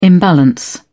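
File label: "imbalance", British English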